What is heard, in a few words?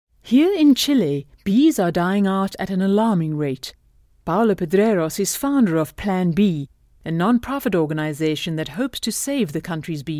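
British narration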